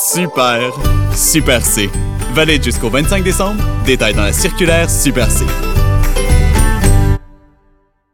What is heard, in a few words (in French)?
pub annonceur fr qc naturel amical avec sourire promo energique jeune; super c